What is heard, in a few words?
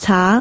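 "caa2", Cantonese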